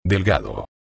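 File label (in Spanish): "delgado", Spanish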